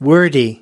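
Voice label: "wordy", US English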